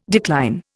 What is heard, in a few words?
"decline", Indian